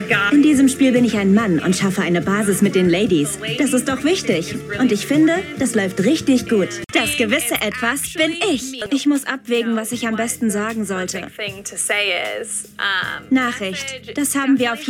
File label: Voice Over; The Circle